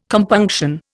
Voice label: "compunction", Indian